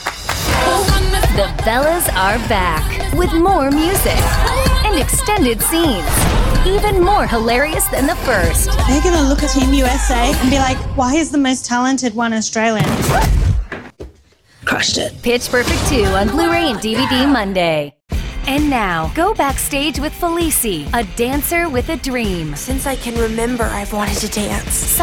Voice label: Trailer, female voices

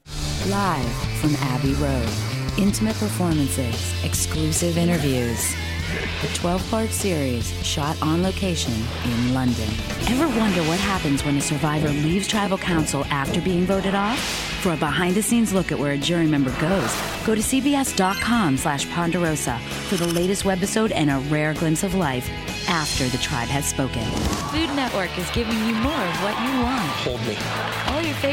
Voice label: Promo, female voices

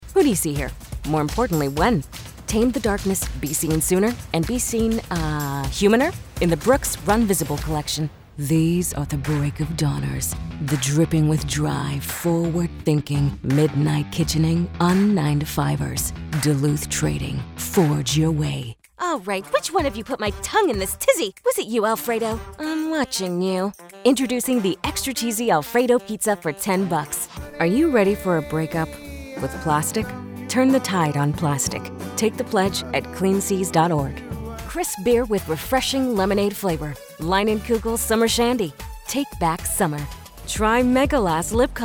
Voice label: Commercial, female voices

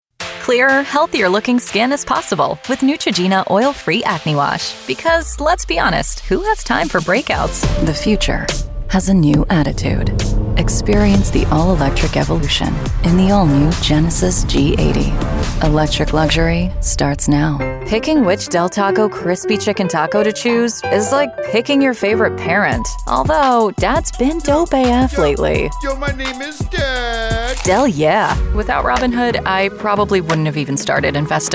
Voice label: Commercial, femalevoices

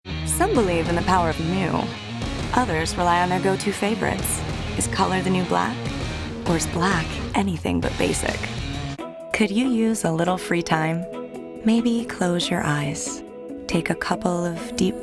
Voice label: Commercial, female voices

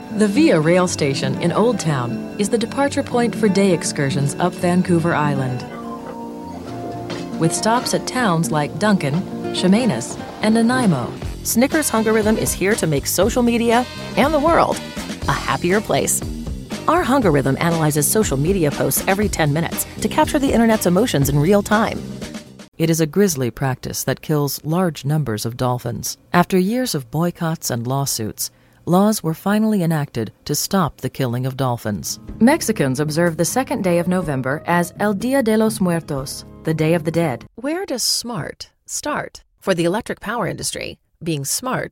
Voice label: Narration, femalevoices

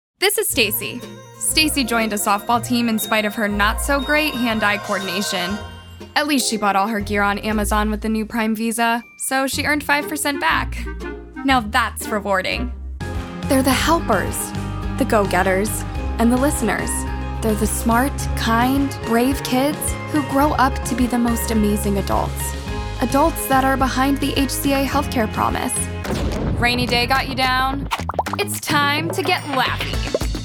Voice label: Commercial, female voices

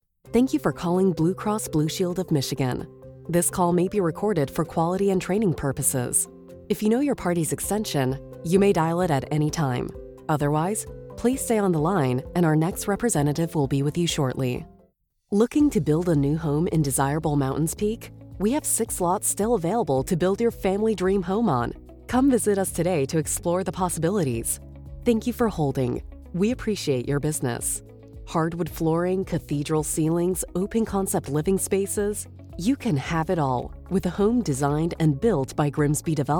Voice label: IVR, female voices

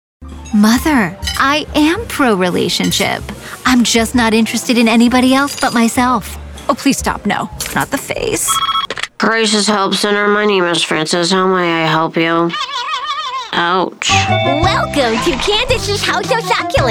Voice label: Animation, femalevoices

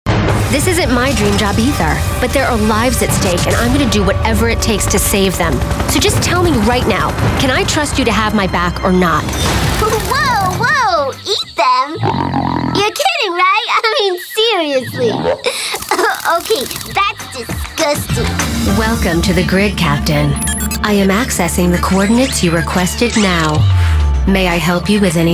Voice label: Video Games, female voices

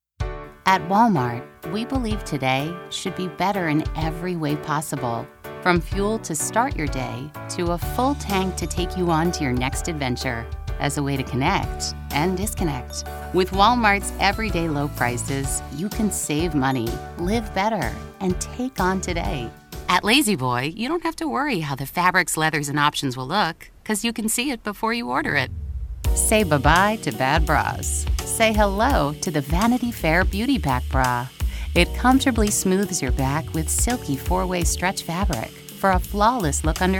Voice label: femalevoices

